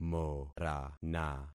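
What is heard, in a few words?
"morana", concatenated syllables; Affixing experiment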